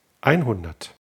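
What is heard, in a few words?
"einhundert", German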